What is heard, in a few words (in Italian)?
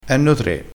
"du", crespinese